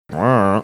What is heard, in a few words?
mumbling shrugging i dont know